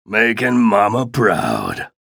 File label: chatter positive; ranger; frag